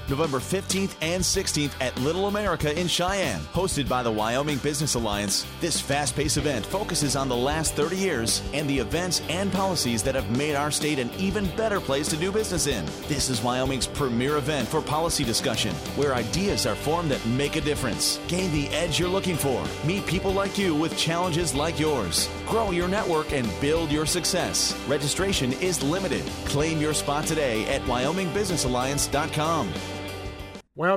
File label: radio news midday